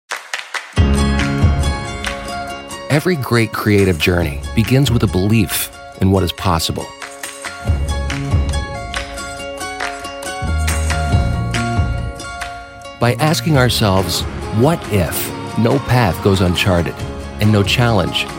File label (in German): TV Werbespots; Jugendfrei; NATIVE PROFISPRECHER